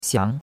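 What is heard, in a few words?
"xiang2", Mandarin Chinese; Cours-de-Chinois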